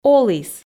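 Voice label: "оліс", Komi, female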